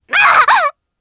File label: Vox Damage jp; Viska; kingsraid